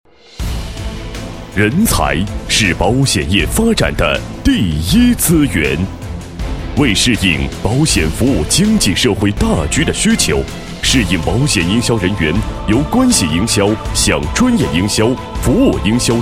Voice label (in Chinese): 男, 国语男声配音 厚重大气